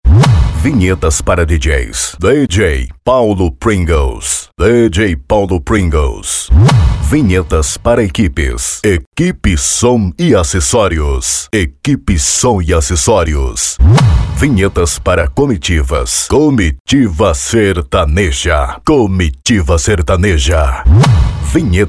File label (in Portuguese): VINHETA locutor voz impactante para djs equipes comitivas e som automotivo